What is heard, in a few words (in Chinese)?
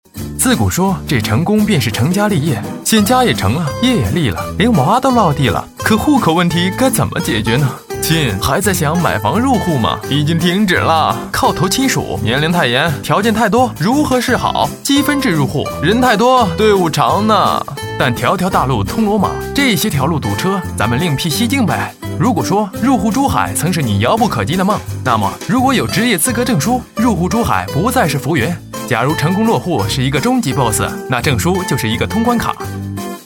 国语男声配音, 男 青春时尚, MG动画 病毒广告 移民